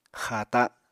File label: "xaata", Apatani